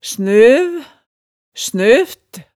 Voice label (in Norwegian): "snøv", hemsedalsmaalet